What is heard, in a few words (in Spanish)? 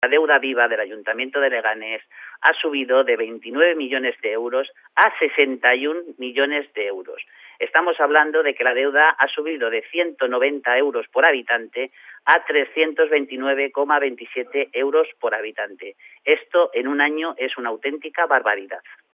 noticias